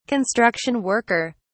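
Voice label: "construction worker", English, British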